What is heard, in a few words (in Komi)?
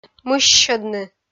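"мыссьӧдны", Komi, female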